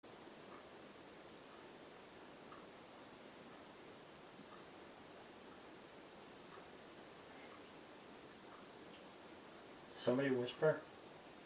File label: Possible Voice Upstairs; EVP